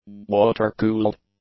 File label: "water-cooled", English; adjectives